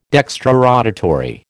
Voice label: "dextrorotatory", American